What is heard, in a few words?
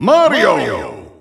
Dutch Announcer; Mario; SSBU